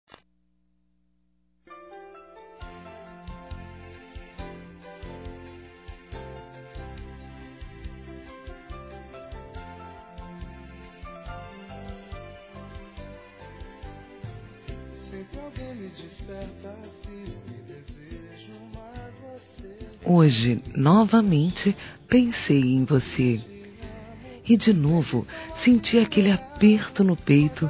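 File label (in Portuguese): SAUDADE, Feminino; Telemensagens; Saudades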